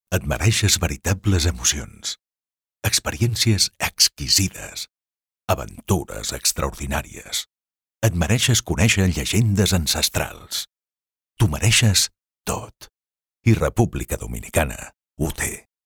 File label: Catalan promos